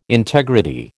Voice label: "integrity", American